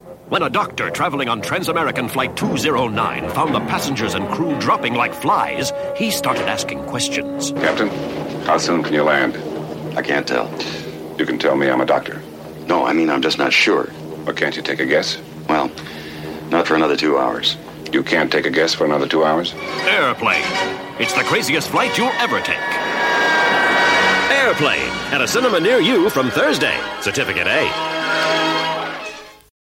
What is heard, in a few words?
Radio Advert; Radio Hallam; Airplane; Doctor